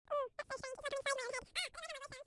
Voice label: cartoon phone voice